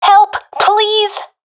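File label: request help, professional female; vo; Counter Strike Model Pack; CS:GO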